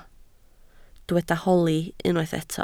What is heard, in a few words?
"holi", Welsh